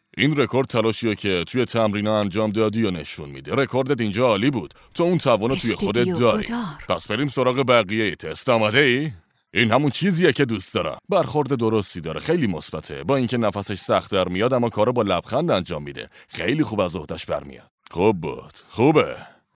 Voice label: documentary